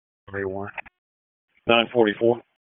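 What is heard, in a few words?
Dispatch 1, P25; WSO DISP 1; wake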